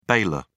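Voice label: "bailor", British English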